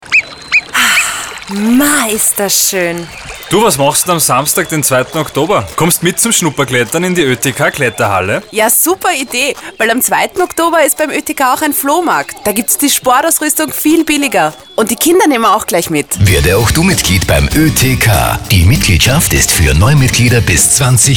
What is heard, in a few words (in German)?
radiospot; schnuppertag